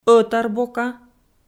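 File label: "ӧтарбока", Komi, female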